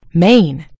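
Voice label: "mane", US English; gcompris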